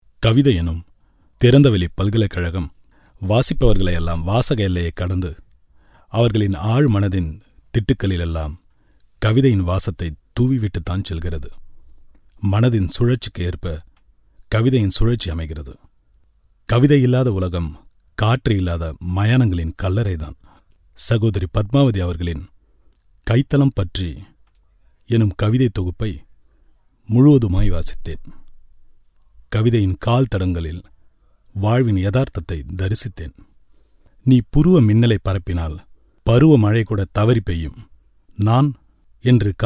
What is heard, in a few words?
Tamil